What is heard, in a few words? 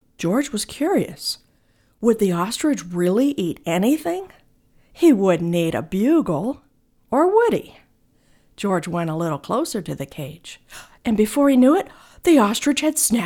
children audiobook